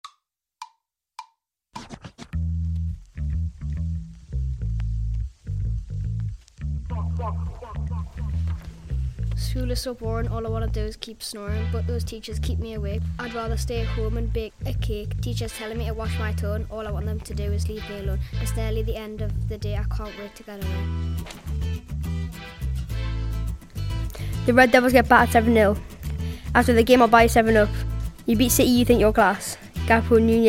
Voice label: rap recordings; cramlington